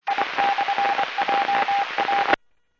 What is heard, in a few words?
Medevac, scanner; PHIAIREVACHelRad